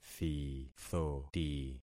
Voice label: "thithoti", concat syllables; Affixing experiment